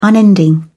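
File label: "unending", British English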